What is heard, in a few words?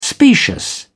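"specious", English, British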